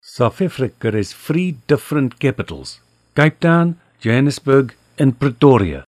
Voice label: afrikaans